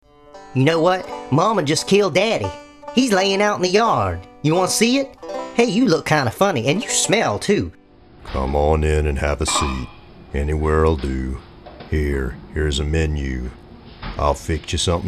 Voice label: character